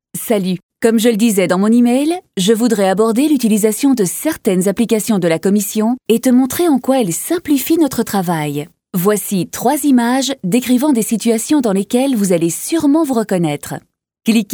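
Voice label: French, female, elearning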